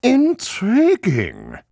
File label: VO en